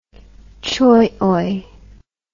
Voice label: "choioy", English